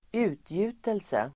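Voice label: "utgjutelse", Swedish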